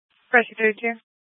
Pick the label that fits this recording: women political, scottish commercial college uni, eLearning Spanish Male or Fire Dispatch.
Fire Dispatch